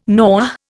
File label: "Noh", Indian